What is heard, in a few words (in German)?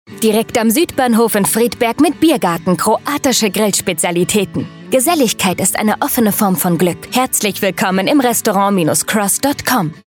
Funkspot; Restaurant CROS